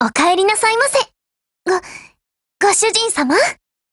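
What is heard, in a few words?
HELLO, Japanese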